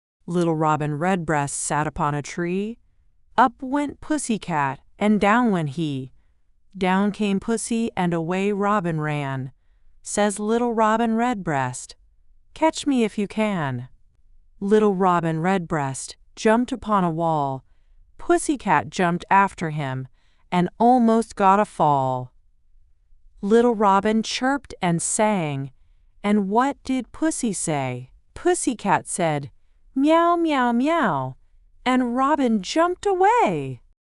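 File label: female us; Little Robin Redbreast Sat Upon a Tree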